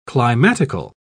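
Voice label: "climatical", British English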